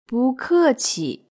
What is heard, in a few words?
"bukeqi" Chinese